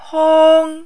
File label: "hong1", Mandarin